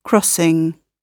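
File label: "crossing", British English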